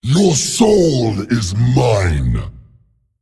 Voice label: Male Dreadlord Attack